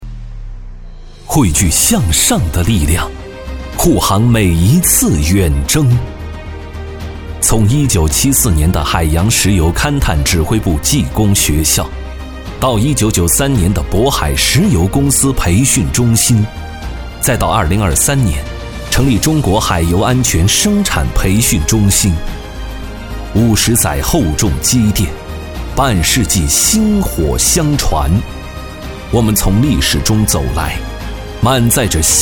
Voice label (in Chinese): 男 宣传片 积极大气